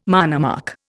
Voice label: "monomark", Indian

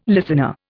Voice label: "listener", Indian

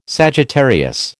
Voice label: "Sagittarius", American

